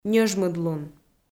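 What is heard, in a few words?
"ньӧжмыдлун", Komi, female; audio dict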